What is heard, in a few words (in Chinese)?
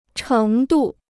"程度", Chinese